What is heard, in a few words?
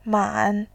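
"man", Mandarin, tone 3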